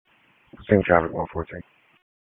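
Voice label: Police Southwest District Disp, radio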